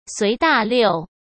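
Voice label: "随大溜", Mandarin Chinese; chinese-flashcards; hsk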